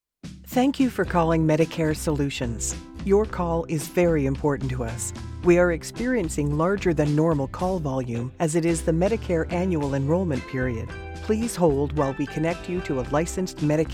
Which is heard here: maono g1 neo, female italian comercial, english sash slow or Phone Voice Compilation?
Phone Voice Compilation